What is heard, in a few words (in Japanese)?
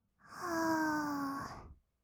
あー……, kawaii voice; ASMR; 色々なワンショット